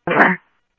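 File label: Sheriff East County, scanner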